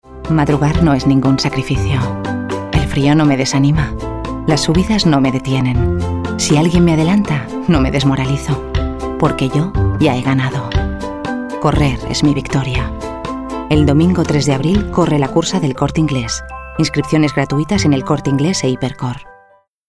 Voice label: female spanish marathon comercial; ideasonora